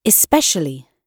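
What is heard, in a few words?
"especially", British English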